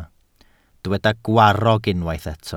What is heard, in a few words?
"gwarrog", Welsh